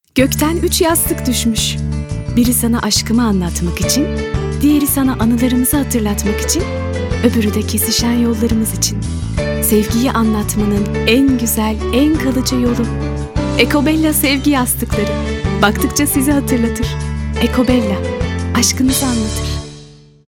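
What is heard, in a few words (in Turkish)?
sicak samimi, seslendirme; enerjik tempolu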